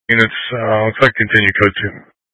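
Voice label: Incident Tactical 1, Scanner audio recording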